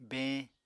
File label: "beeñ", apatani